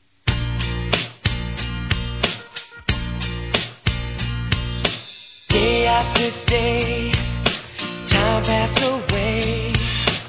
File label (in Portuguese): Aniversário Casamento Geral Masculino; Telemensagens 2017; Aniversários